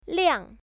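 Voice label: "liang", Chinese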